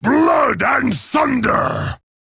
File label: battlecry; garrosh